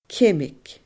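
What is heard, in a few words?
"ceimig", Scottish Gaelic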